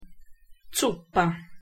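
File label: "zuppa", Italian pronunciation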